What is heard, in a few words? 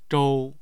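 "zhou1", Mandarin Chinese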